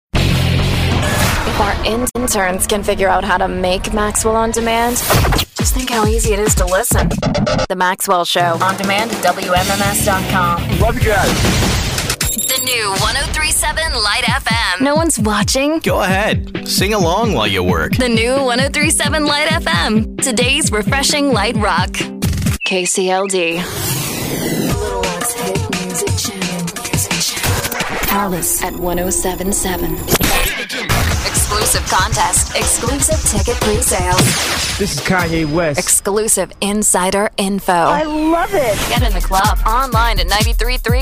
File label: imaging, voiceover